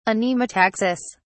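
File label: "anemotaxis", British English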